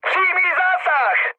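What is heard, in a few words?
bomb success, Czech, mood high; War Thunder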